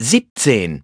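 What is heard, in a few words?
"seventeen", English; iOS 4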